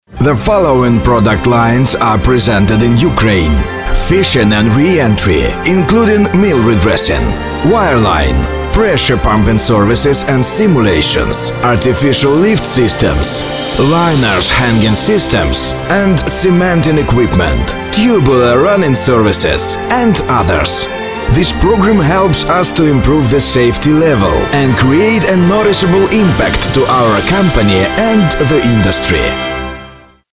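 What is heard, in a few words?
Ukrainian, English, male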